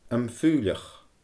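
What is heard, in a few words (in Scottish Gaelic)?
"Am Faoilleach Faoi", Scottish Gaelic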